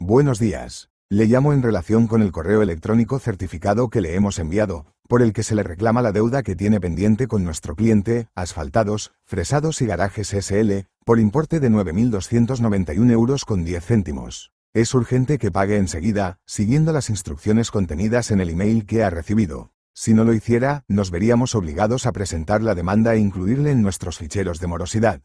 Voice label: audio call